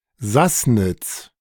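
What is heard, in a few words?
"Sassnitz", German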